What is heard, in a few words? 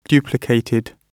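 "duplicated", British English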